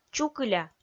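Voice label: "чукыля", Komi-Zyrian, female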